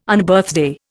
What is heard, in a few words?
"Unbirthday", Indian